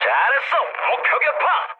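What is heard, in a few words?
Korean bomb success mood med, speech; War Thunder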